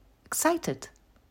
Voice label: excited